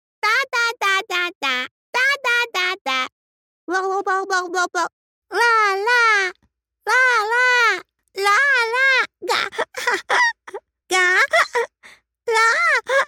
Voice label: Baby Voices